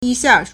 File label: "yi1 xia4", Chinese